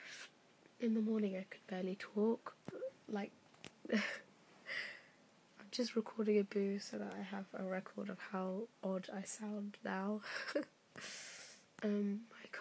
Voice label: strange sounding voice